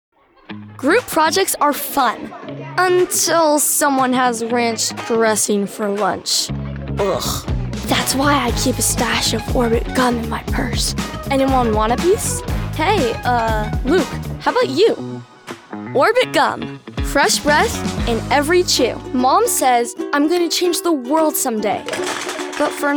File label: Commercial